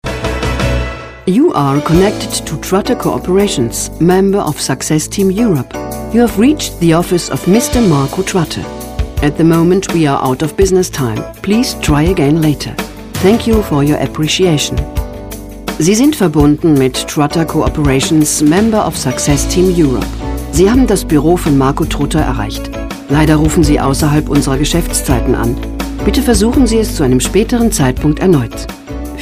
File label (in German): Anrufbeantworter